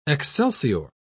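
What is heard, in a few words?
"excelsior", British English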